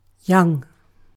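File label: "young", British English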